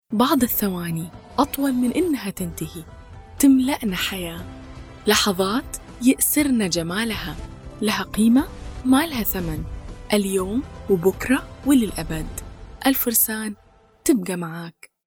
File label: sample e3lan khaleeji; Mutanafas